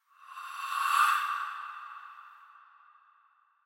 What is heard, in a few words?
scary voice; soundscrate